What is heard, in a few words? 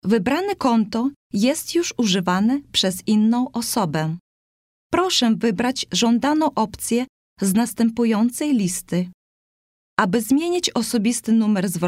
Polish